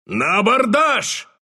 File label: attack, ru; Dota 2